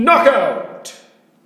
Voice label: announcer knockout